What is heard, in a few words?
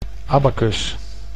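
"abacus", Dutch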